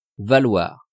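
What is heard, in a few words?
"valoir", French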